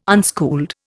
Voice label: "unschooled", Indian

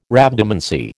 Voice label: "Rhabdomancy", American

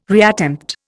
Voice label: "reattempt", Indian

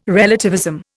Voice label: "relativism", Indian

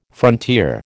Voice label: "frontier", American

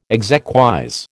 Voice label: "exequies", American

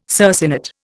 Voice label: "circinate", Indian

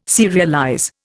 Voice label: "serialize", Indian

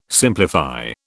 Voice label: "simplify", British

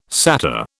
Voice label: "satyr", British

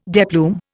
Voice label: "deplume", Indian

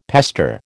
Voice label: "pester", American

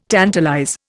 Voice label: "tantalize", Indian

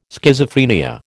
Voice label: "Schizophrenia", American

